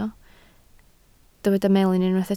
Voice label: "melyn", Welsh